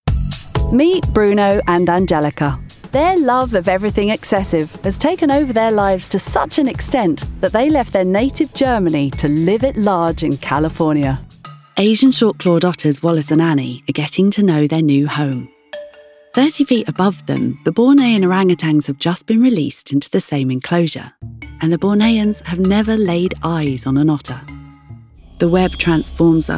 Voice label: female english documentary compilation, welsh; devine voices

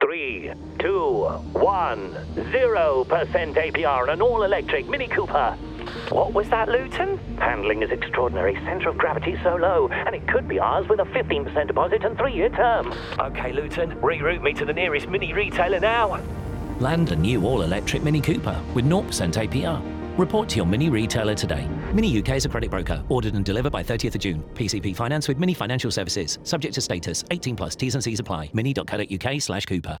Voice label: male english radio commercial; english northern accents; mini cooper

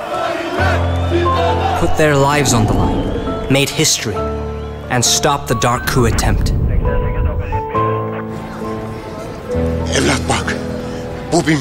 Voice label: male turkish transatlantic accent

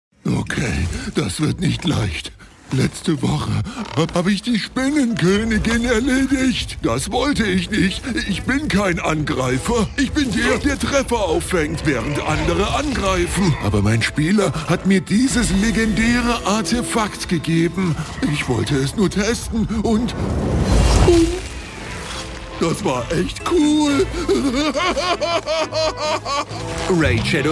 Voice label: male german game dubbing; raid

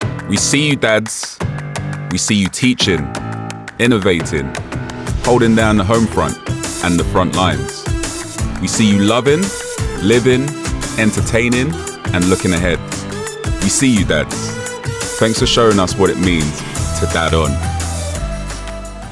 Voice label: male english tv promo; dove men; Devine Voices

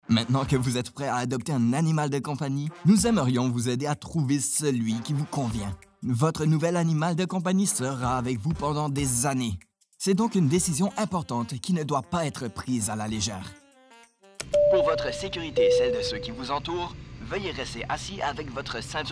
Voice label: male French Canadian e-learning compilation

